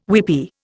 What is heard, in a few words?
"weepie", Indian